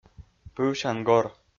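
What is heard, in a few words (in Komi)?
"пывсян гор", Komi, male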